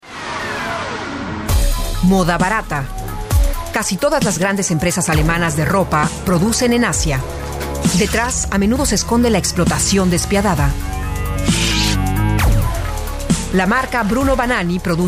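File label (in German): Spanish Werbung Dynamisch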